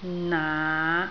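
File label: "naa5", Cantonese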